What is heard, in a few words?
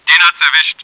hes down, german; csco; csgo; bot